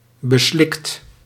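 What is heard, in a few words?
"beslikt", Dutch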